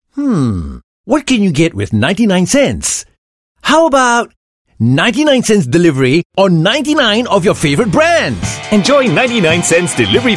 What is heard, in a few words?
Asian English; FoodPanda 99cents